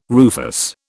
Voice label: "rufous", British